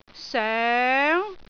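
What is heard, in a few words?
"soeng2", Cantonese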